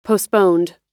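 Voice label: "postponed", American English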